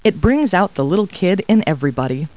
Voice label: people, kid

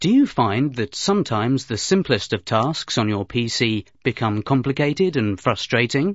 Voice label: FRUSTRATION

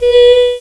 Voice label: Solfege, Claire's Voice; Opcode Systems

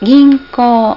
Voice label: ginkoo, Japanese; japaneselanguageguide

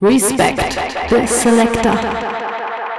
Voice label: voice FX, Drum+Bass, 160; eJay

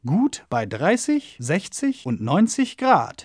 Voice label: adver, phrases, german; Wired for Sound Pro CD; Aristosoft, Inc.

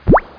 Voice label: babble

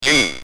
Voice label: "G", Speak & Spell UK

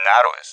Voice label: "narrows", speech; RallyC

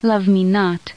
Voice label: Sound Love me not-female

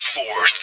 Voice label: "fourth", Voice; Ballist

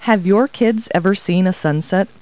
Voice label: people, kid

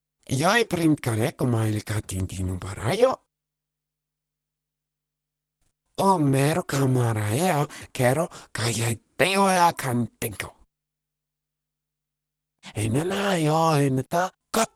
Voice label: talk Casual; Singles Flirt Up Your Life

